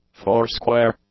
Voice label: "foursquare", English; adjectives